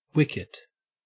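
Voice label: "wicket", Australian English; pronunciations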